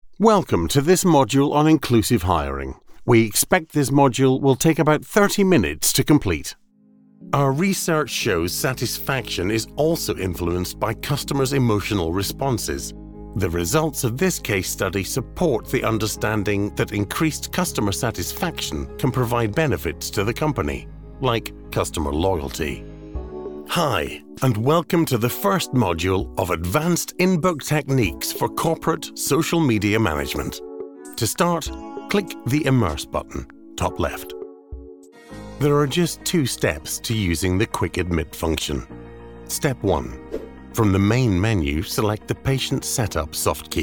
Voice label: E-LEARNING

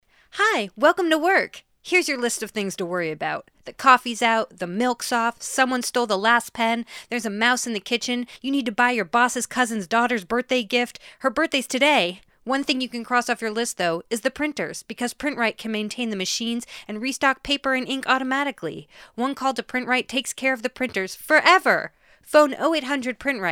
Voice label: Natural Quirky Fun, en-GB

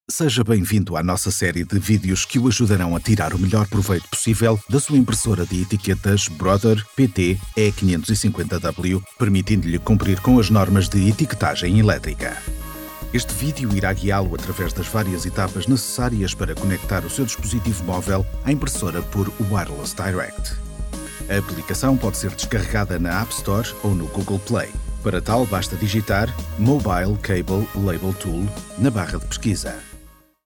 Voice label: Portuguese eLearning

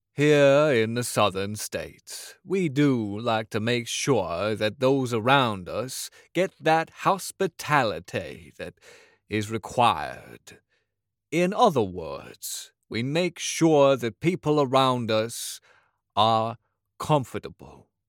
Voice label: southern US

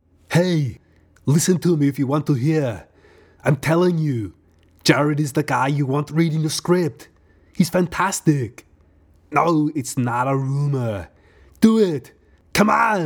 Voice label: Impressions; en-AU

